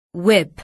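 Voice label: "whip", English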